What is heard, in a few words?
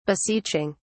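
"beseeching", British English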